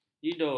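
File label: "jidur", Malay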